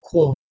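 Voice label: "kwo", Proto-Indo-European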